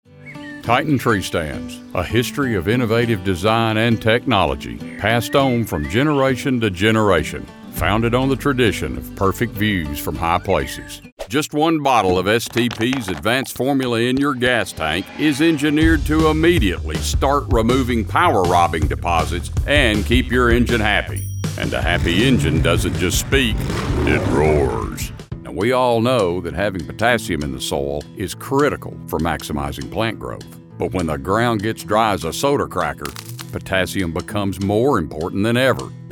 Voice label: commercial voice

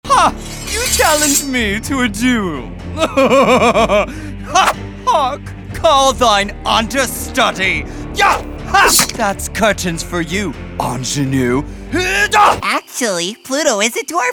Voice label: animation

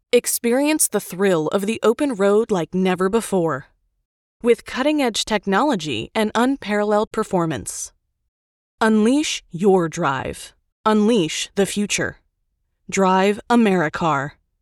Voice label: car commercial

